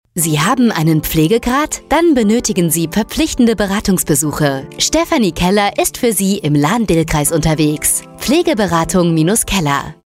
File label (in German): Funkspot; Pflegeberatung